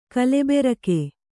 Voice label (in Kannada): "ಗಲಬರಿಕೆ", Kannada